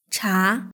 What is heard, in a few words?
"cha", Chinese; hsk2